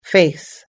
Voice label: "face", us english

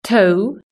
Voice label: "toe", british english

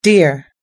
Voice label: "deer", us english

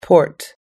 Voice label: "port", us-english

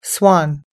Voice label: "swan", us-english

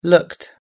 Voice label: "looked", us-english

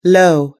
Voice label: "low", us english

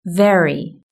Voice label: "very", british english

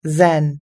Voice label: "zen", us-english

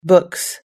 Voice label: "books", us-english

